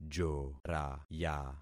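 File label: "joraya", concatenated syllables; Affixing experiment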